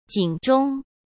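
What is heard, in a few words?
"警钟", Mandarin Chinese; hsk; chinese-flashcards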